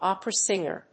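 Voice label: "Opera singer", English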